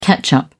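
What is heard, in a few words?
"ketchup", British English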